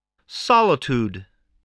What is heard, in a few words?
"solitude", English voice